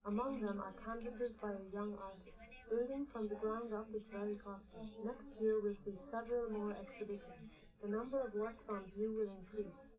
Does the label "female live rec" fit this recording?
Yes